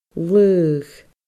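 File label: "laogh", Scottish Gaelic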